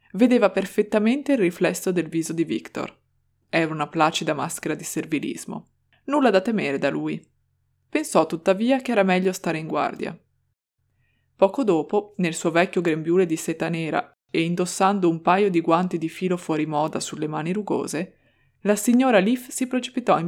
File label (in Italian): audiolibro Il ritratto di Dorian Gray; ACX